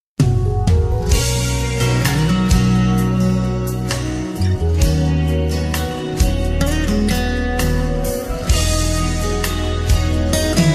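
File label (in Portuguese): ROMANTICA EVANGELICA female, telemensagem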